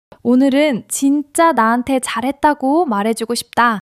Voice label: joy